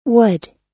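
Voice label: "wood", US English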